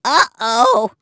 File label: Grunt Crazy